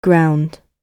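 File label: "ground", British English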